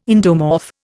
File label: "endomorph", Indian